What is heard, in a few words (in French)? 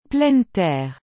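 "pleine terre", French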